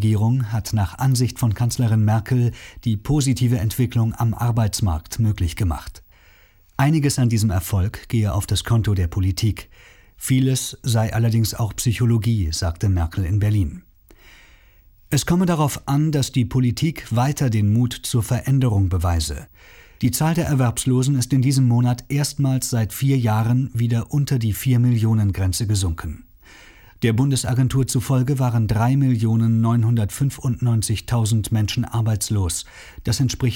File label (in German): nachrichten